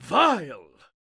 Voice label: Melee Miniboss Mid Combat Line